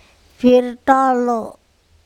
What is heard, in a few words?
"pHirTOlo" fish, andamanese